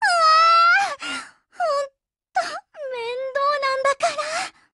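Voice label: BREAK Japanese, Voice; GF Alarm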